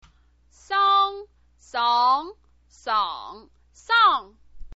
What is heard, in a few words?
"sang", chinese pinyin